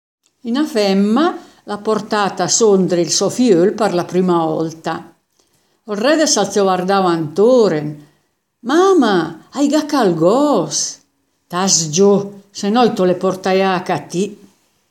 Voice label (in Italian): Dialetto di Albosaggia; Òl goos